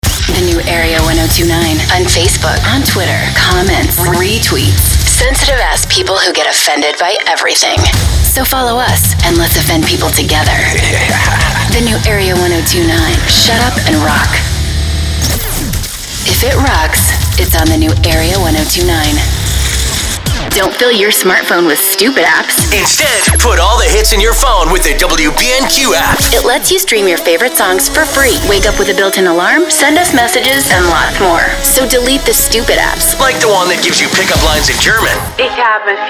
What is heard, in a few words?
Imaging VO